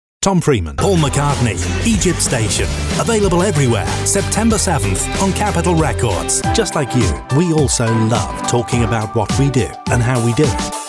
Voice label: Commercials